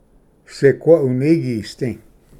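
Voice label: "siqua unigisd", Cherokee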